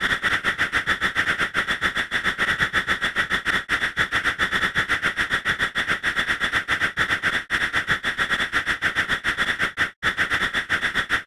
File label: whisper; sonniss gdc